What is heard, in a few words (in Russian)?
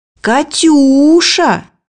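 "катюша", Russian; bukvar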